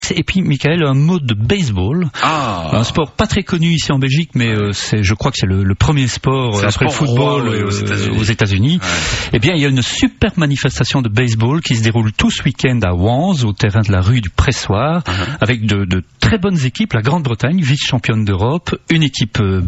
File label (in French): Reportage radio; Bel-RTL; 4nations